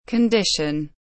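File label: "condition", English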